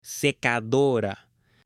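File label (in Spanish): "SECADORA", male; MUEBLES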